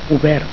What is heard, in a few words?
"obert", Catalan